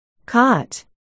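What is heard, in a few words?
"cot", spoken word, articulation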